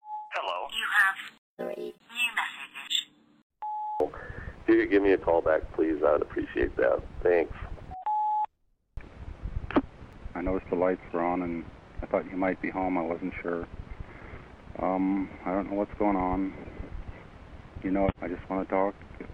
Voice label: anwseringmachine; roomeyes; 1 MISSING FRIEND